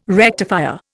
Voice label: "rectifier", Indian